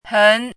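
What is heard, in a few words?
"hen2", Mandarin Chinese syllable, pinyin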